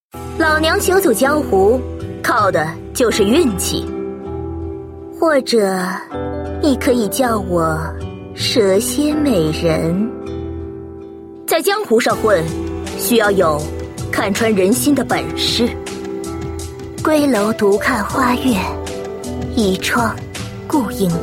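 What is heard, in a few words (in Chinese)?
yujie nüwang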